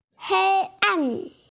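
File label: "heian", Mandarin Chinese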